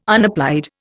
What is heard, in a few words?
"unapplied", Indian